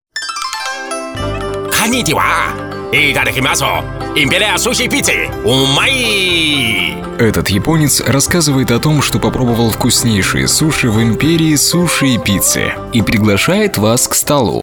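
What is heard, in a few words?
Japanese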